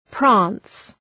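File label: "prance", English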